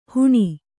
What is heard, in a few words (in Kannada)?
"ಹುಣಿ", Kannada